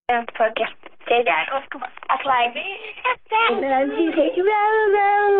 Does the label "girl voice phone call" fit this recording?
Yes